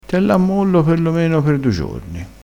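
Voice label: "a mollo", Italian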